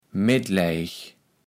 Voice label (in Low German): "metlijeg", kaevels platt